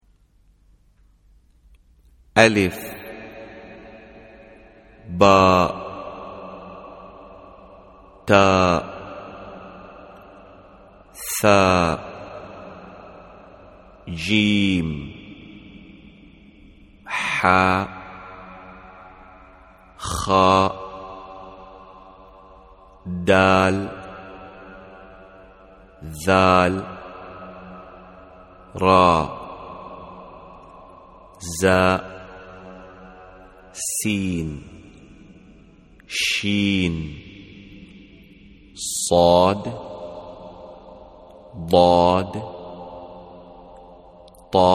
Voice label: Names of Arabic alphabet; quran syllabus primary